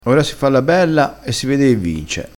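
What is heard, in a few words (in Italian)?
"i", crespinese dialettale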